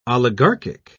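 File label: "oligarchic", British English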